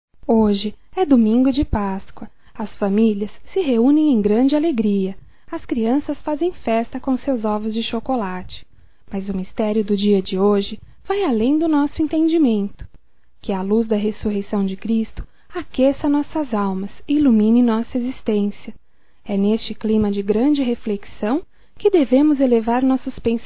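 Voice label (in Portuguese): PASCOA NEUTRA, female, Telemensagens, Só Voz; Telemensagens 2017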